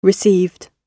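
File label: "received", British English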